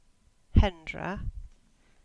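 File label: English; Hendra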